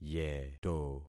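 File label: "yeto", concatenated syllables; Affixing experiment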